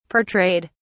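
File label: "portrayed", British English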